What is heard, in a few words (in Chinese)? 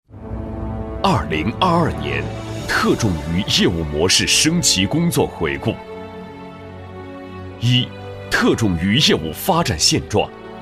男 企业回顾专题; 年轻激情 大气浑厚